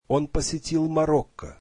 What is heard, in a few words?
russian he visited Morocco